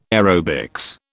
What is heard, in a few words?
"aerobics", British